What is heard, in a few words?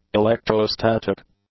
"electrostatic", English; adjectives